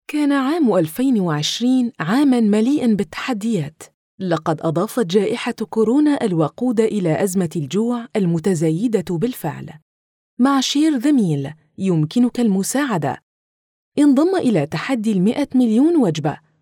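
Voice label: Arabic female MSA dialect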